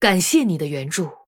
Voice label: Female Human Thanks, zh